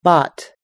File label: "bought", us-english